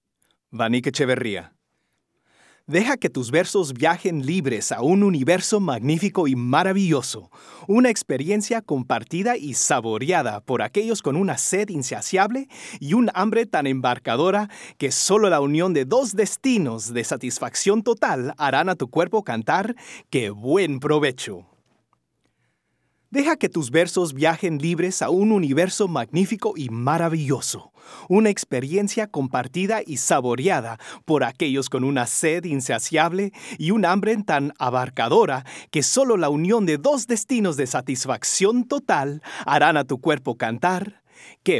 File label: Spanish; Commercial Demo; Voiceover